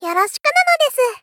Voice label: Moe Join Yoroshiku nanodesu; Rei Yumesaki

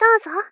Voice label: Polite Others Dozo; Rei Yumesaki

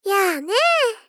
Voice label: Gentle Dislike Yaa nee

